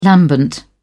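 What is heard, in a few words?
"lambent", British English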